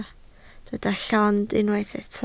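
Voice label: "llond" Welsh, spoken word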